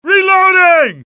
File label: reload, US; dialogue; mohaa